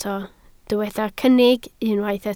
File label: "cynnig", Welsh